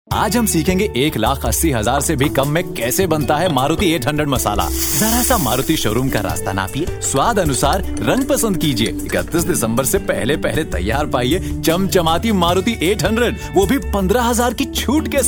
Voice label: hindi, male voice

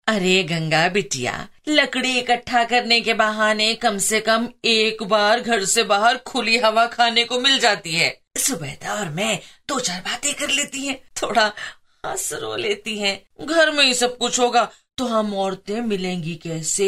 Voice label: drama, female voice

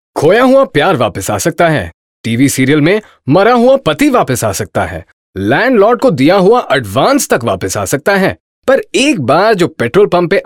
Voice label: male voice; Paytm

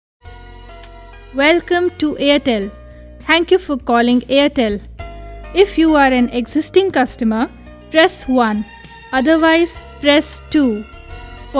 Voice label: ivr eng, female voice

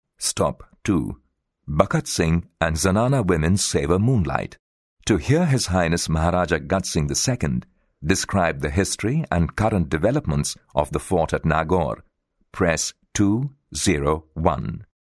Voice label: english, male voice

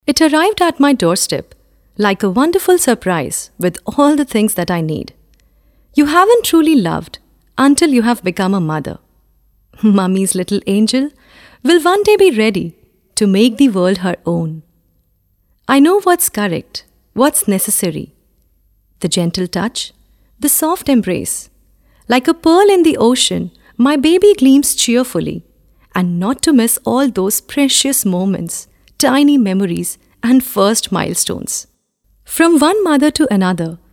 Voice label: ad english, female voice

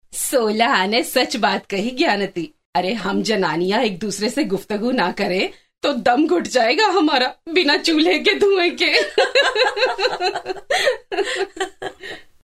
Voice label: drama, female voice

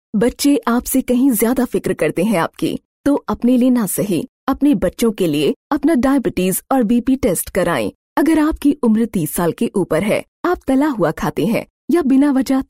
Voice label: Hindi, female voice

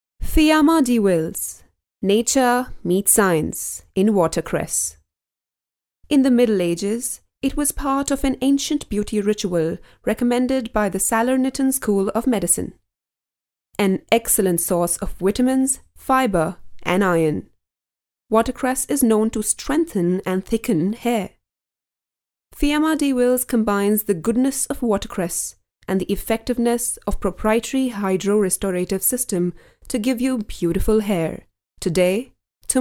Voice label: female voice

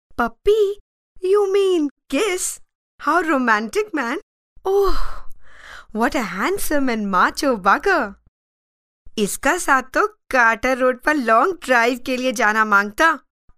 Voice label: female voice

